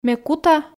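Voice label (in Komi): ме кута, Komi, female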